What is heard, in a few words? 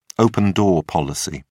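"open-door policy", British English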